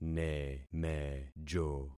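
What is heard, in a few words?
"nemejo", concatenated syllables; Affixing experiment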